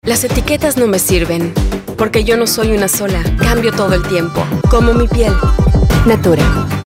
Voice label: Authentic Young Trendy; Natura